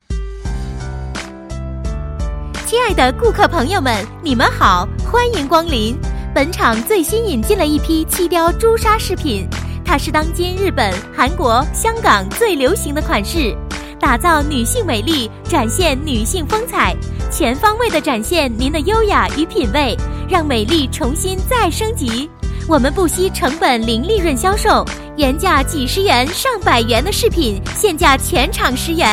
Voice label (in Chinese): 女 广告样音